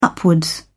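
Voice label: "upwards", British English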